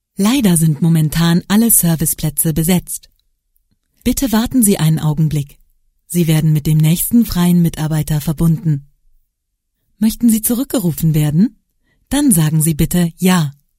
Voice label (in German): deutsch telefonansage